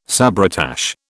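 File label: "sabretache", British English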